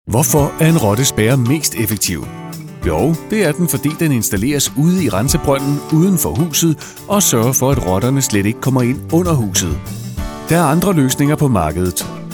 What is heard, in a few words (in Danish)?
Dansk, speakere